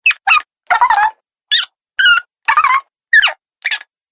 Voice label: Cartoon Chipmunk Sounds, Cartoon Voice Sound Effects